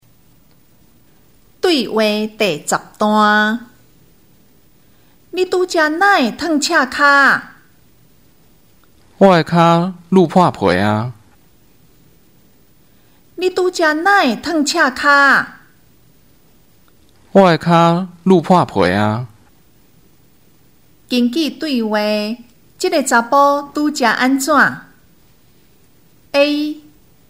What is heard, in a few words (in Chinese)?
對話, 閩南語; 對話理解; 閩南語語言能力認證; 閩南語能力測驗題本